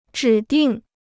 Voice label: "指定", Chinese

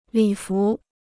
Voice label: "礼服", Chinese

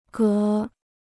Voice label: "隔", Chinese

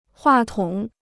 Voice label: "话筒", Chinese

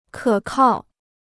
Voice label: "可靠", Chinese

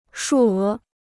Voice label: "数额", Chinese

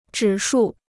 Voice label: "指数", Chinese

